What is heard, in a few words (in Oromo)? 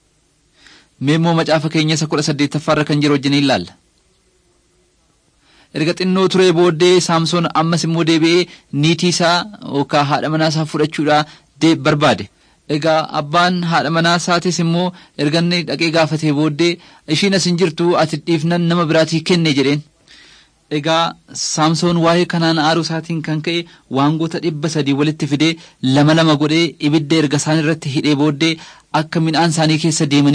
oromo wellega